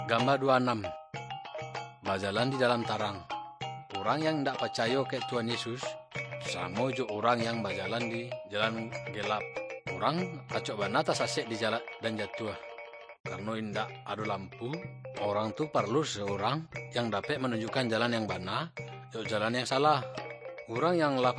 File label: good news minangkabau muko muko; kaba ilo